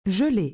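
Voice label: "geler", French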